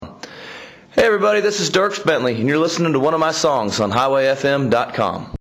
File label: liner; DIERKS BENTLEY